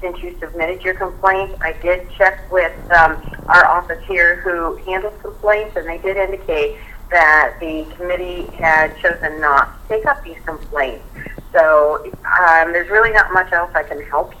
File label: Phone Call